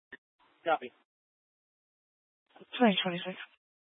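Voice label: Fire Dispatch, scanner audio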